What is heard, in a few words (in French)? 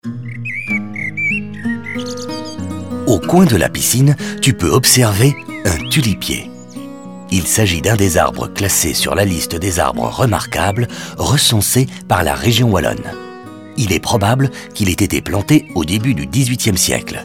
audioguide fr enfant; jardin; modave